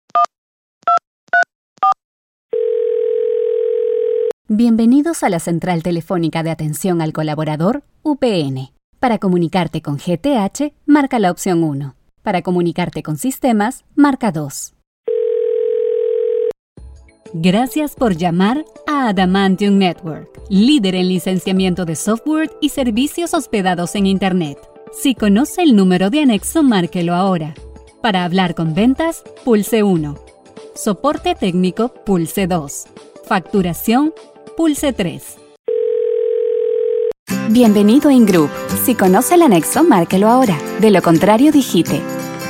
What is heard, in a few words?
IVR